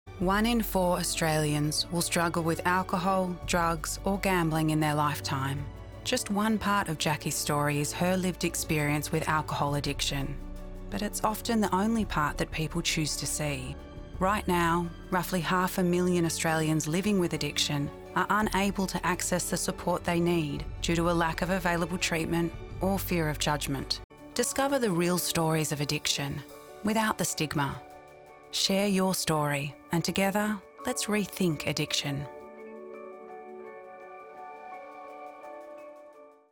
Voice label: Narration